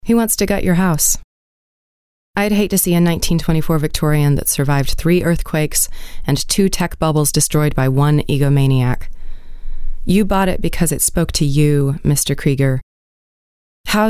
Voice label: US narrative, englisch; sprecher